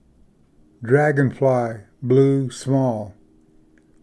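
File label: "dragonfly blue small", English; ᏩᎾᏚᎦ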